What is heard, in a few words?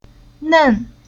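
"Nen", Chinese syllable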